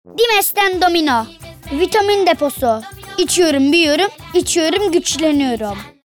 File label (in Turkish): Reklam